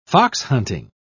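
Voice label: "foxhunting", English, British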